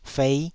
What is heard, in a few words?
"fei5", taiwanese syllable